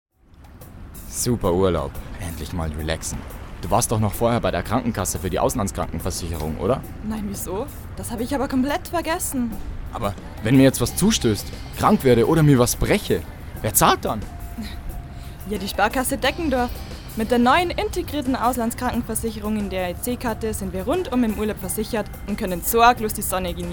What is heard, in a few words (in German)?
Werbung Sparkasse